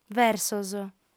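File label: "versos", Sardinian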